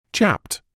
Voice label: "chapped", medical english uk